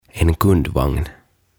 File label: "En Kundvagn" "Ostoskärry"